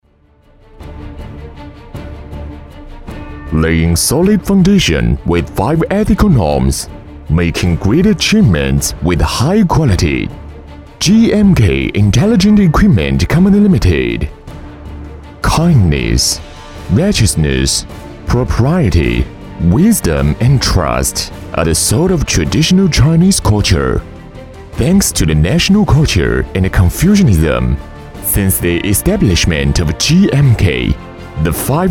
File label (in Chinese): 英男 英文专题 浑厚大气